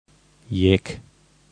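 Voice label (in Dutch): Jek, kerkraads dialect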